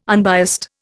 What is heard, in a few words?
"unbiased", Indian